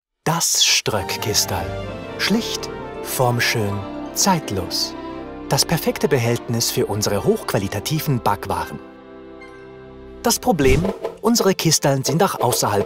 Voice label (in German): Werbung verspielt; Stroeck